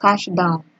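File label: "cash down", Nigerian Pidgin, female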